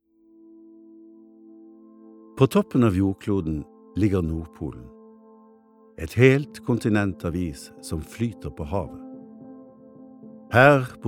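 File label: Norwegian dokumentar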